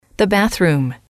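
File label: "the bathroom", English, female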